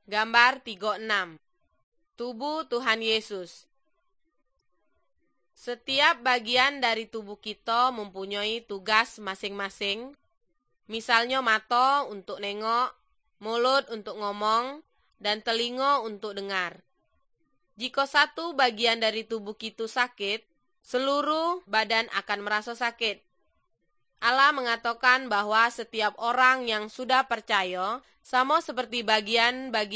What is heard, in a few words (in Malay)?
good news malay jambi